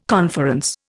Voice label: "conference", Indian